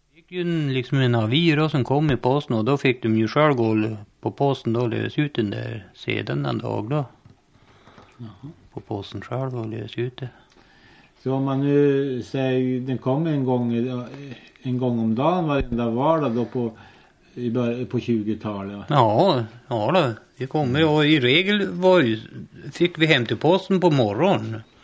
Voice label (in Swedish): Ullånger, dialekter; Folkminnen